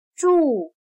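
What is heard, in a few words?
"住" Chinese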